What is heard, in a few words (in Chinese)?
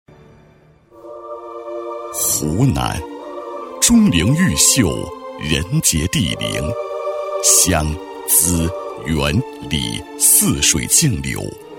男, 厚重大气 成熟厚重; 陕西省湖南商会